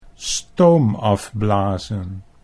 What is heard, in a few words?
"stoom afblazen", Dutch